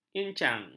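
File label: "incang", Malay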